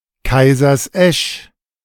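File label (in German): "Kaisersesch", German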